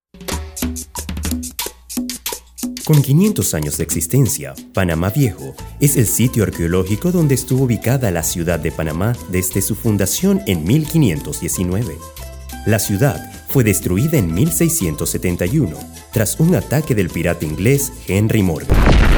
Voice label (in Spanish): narra